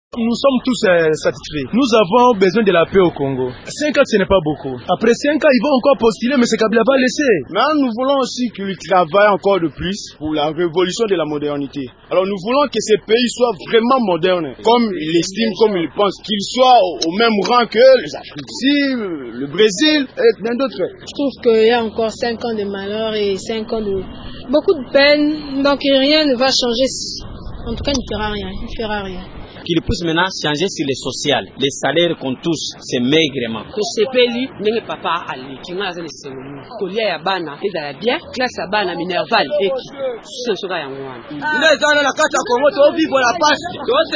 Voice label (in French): Vox pop Kinois